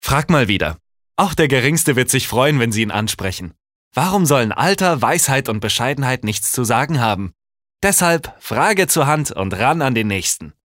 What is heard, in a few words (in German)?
Werbung, Freundlich